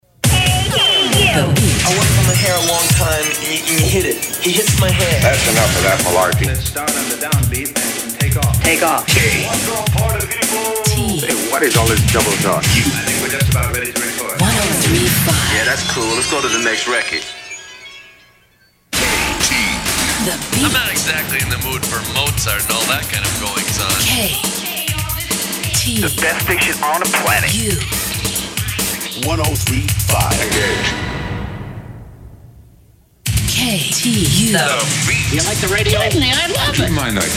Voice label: Sweeper Montage